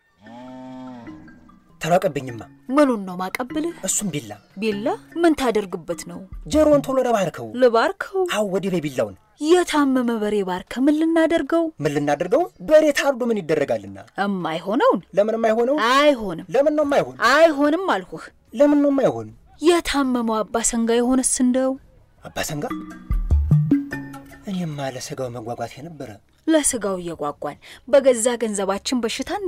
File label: Audio Spot; Zoonotic; Avoid Eating Raw Meat